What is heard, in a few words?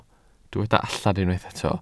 "allan", Welsh